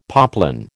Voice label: "poplin", American